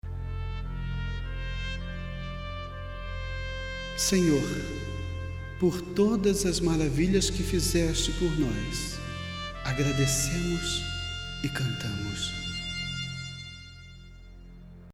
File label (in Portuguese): Narracao